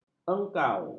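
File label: "engkau", Malay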